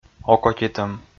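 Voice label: "окотитӧм", Komi, male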